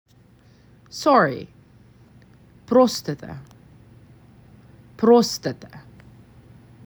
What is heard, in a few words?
"Sorry", macedonian; the talking macedonian phrasebook